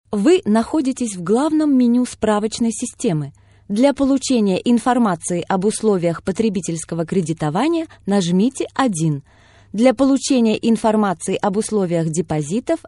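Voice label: Female Russian ivr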